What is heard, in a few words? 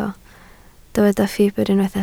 "ffibr", Welsh